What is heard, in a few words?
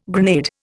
"grenade", Indian